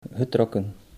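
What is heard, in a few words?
"getrokken", Dutch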